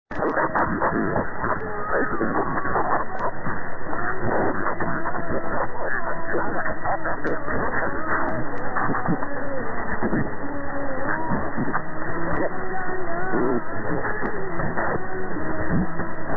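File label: 783 Arabic with ID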